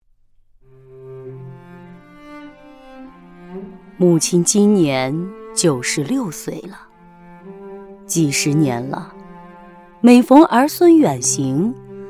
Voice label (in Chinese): 温暖深情; 母亲的盐米包